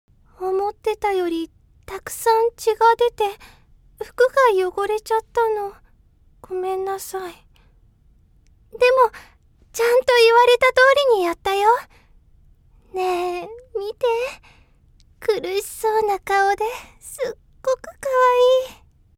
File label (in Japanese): 真面目な女の子